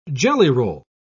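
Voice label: "jellyroll", British English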